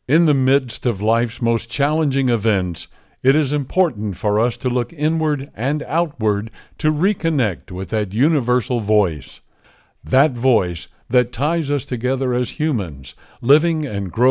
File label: English VO; One World Many Voices